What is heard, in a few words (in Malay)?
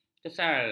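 "kesal", Malay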